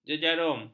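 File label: "jejarum", Malay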